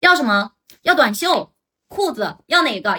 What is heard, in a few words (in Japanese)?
プロのツアーガイドナレーション 旅行のための自然なAIナレーション